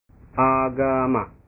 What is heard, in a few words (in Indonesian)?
"agama", bahasa